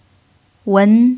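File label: "wen2", Chinese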